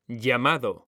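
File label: "llamado", Spanish, male; mekanta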